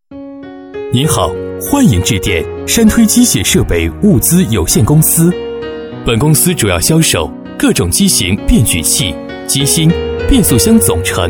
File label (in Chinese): 男 彩铃 机械设备物资有限公司; 山推